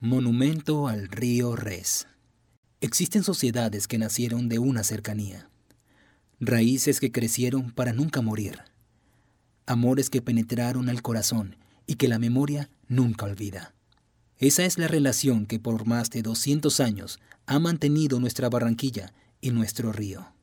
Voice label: SPANISH